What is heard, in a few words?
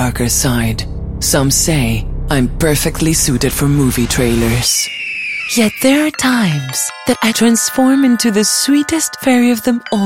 english commercial